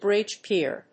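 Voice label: "bridge pier", English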